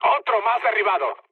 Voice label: player killed enemy, mood med, speech